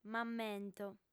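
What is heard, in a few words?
"m'ammento", female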